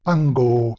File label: "ango", Latin